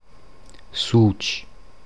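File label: Suc dialect; Suc Mundart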